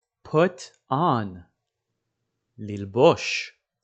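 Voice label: "put on" English, "ללבוש" Hebrew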